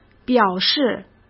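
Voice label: "表示", Mandarin Chinese; chinese flashcards; hsk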